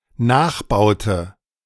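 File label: "nachbaute", German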